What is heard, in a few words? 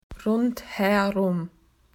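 "round", Australian English; PictureDictionary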